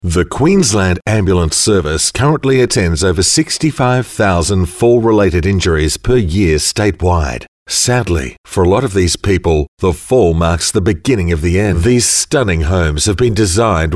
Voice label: Corporate